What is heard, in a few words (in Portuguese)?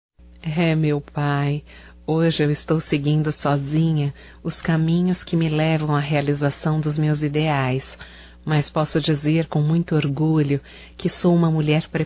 SAUDADES PAI, Telemensagens, Só Voz, fem